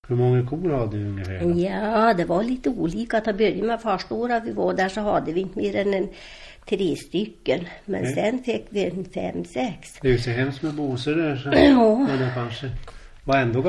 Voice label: Angersjo, dialekter; HLS

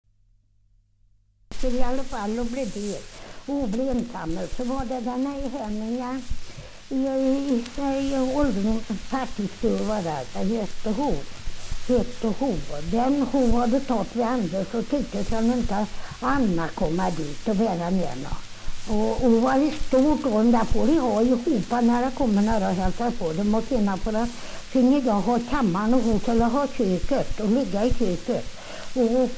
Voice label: dialekter; Folkminnen

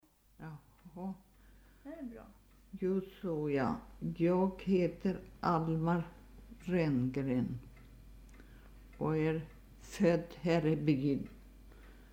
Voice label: dialekter; Folkminnen; Svenska sagor

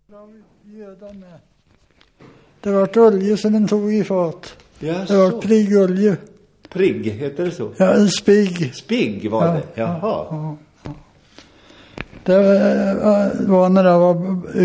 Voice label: Sankt Anna, dialekter; Folkminnen

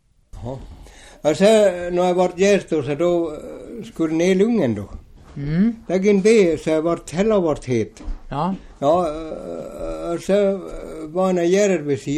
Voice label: Mockfjärd, dialekter; Svenska sagor; DAL; Folkminnen

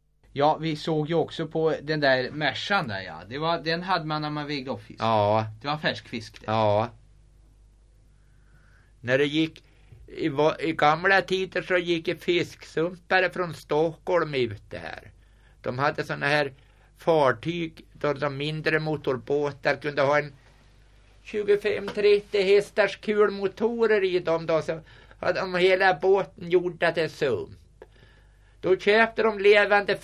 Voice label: Gräsö, dialekter; Svenska sagor; Folkminnen

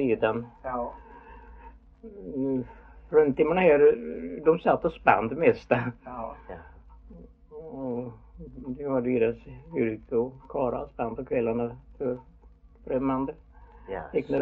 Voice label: Idala, dialekter; svenska sagor; Folkminnen

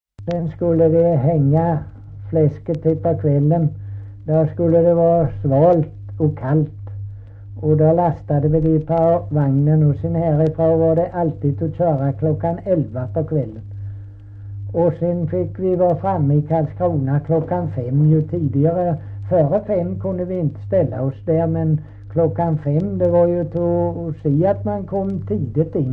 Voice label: Kristianopel BLEK, dialekter; Folkminnen

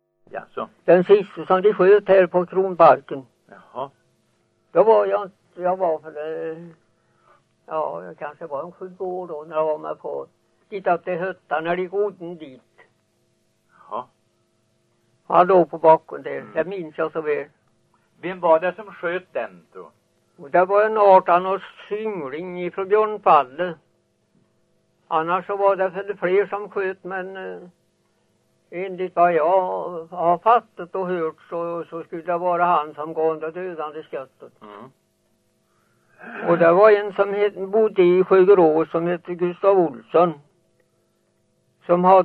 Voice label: dialekter; Folkminnen

